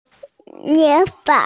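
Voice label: "imitate", hearing impaired; mo2fang3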